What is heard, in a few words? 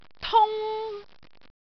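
"tung1", Cantonese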